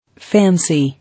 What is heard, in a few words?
"Fancy", English